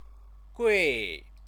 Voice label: "gui", Chinese